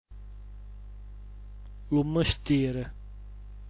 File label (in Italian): "lumstir", dialetto giulianova